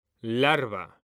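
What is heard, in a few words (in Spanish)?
"larva", Spanish, male; mekanta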